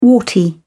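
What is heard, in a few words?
"warty", British English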